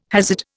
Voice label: "Hasid", Indian